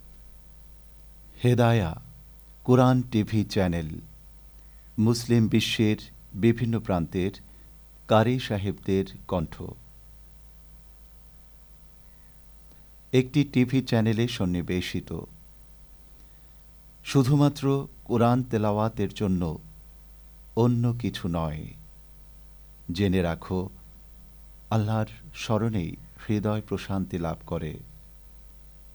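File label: Bengali, voice over dubbing, male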